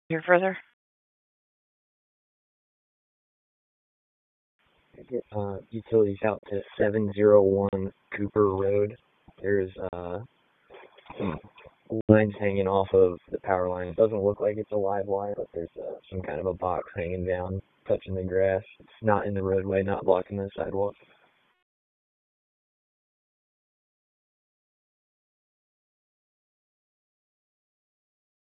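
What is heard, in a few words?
Raleigh Police Southeast District Dispatch, p25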